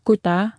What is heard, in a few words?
hello, greenlandic; Kutaa